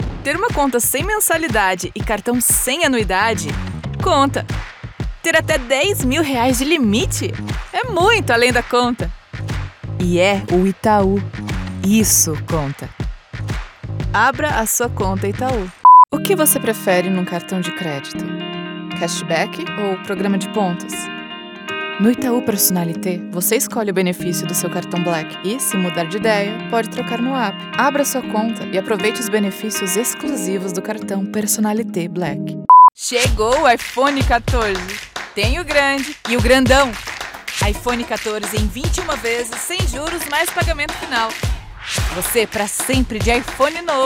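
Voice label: commercial female brazilian portuguese voiceover; reel; itau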